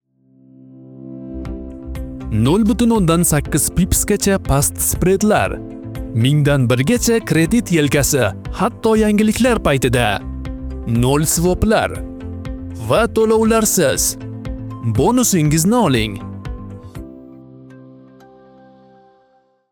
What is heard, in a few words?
Reklamnyi rolik